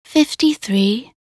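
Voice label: voc en min 53, English; minute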